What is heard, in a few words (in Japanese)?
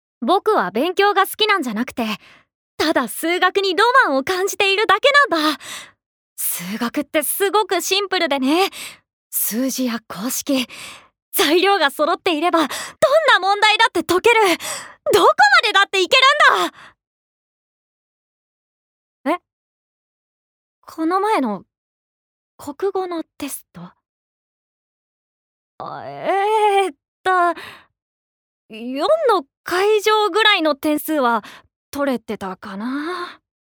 アニメ